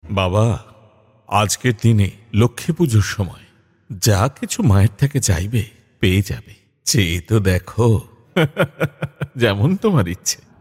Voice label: Old Male Sample